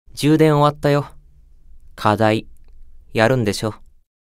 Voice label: AP Notification Voice; Belphegor